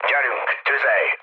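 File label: attack position, mood low, Hungarian; War Thunder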